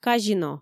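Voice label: "казино", Komi, female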